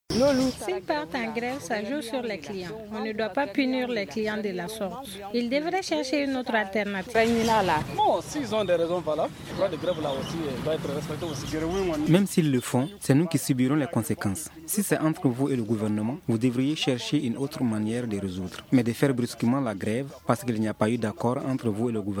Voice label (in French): VOX POP GREVE BANQUE